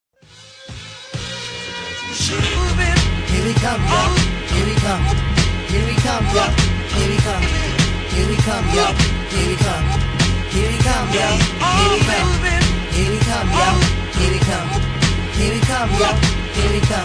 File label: rap